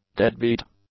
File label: "deadbeat", english; adjectives